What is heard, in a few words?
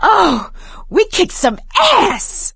hurrah, survivor voice; Left 4 Dead 2; producer